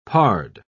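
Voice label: "pard", British English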